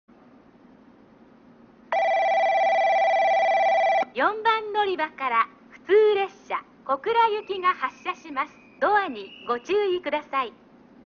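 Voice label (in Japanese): 4番のりば発車放送, 中津駅; 普通 小倉行き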